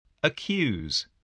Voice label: "accuse", English voice